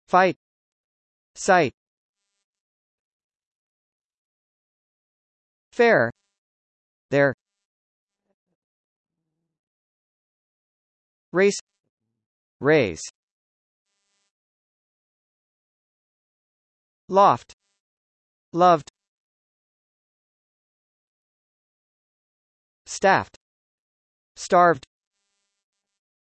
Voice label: f, US; der buchstabe f